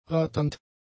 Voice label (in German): "ratternd", German; adjectives